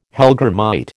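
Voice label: "hellgrammite", American English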